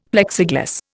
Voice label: "Plexiglas", Indian; Dictionary